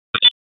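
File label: EMS Dispatch, p25; wake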